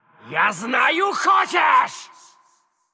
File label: Male Undead Attack; Hearthstone; датамайн обновления 5.0